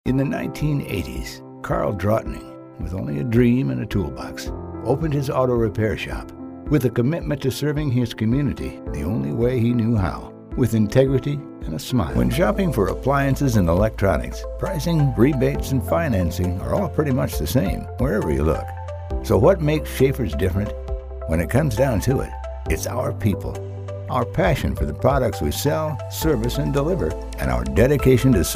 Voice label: MALE COMMERCIALS

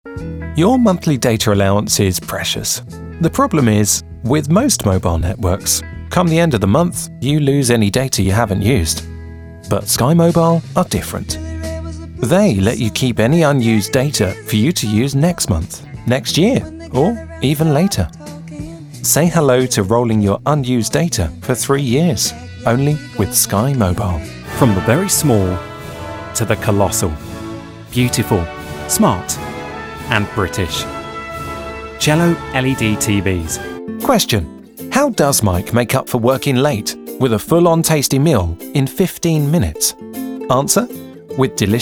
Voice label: Male Voiceover Commercial

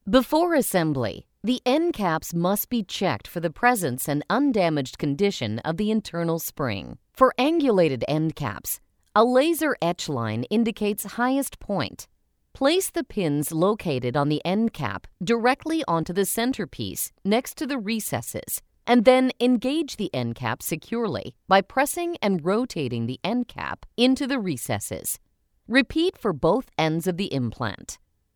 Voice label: Educational Instructional

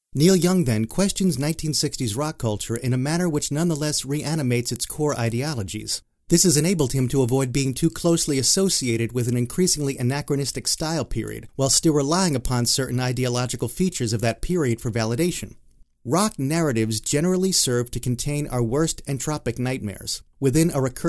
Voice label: Audio Books

